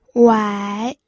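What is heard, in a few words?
"wai3", Mandarin Chinese, pinyin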